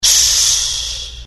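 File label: curious, Italian; mohaa; dialogue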